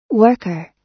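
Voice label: "worker", US English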